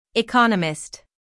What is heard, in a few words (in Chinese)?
美籍外師念economist